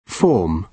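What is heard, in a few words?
"form", British English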